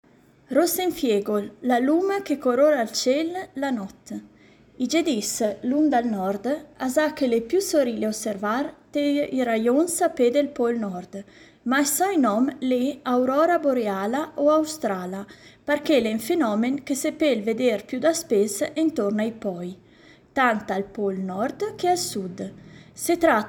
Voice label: lingua ladina